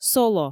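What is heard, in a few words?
"соло", Komi, female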